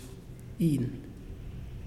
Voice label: Fish, Muyuw; Lae Unitech